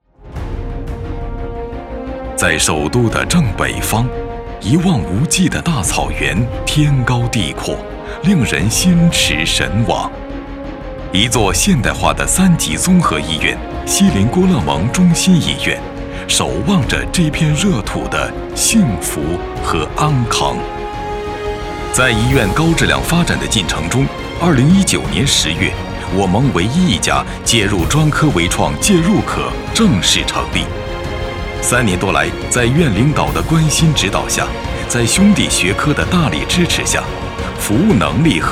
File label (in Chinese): 医疗 宣传片 坚定 平稳 讲述 客观 自信; 中心医院; 医院科室